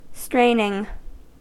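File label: "straining", US English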